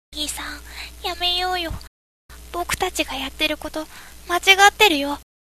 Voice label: boy